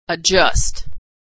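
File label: "adjust", American English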